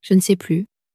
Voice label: "je ne sais plus", French